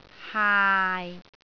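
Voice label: "haai6", Cantonese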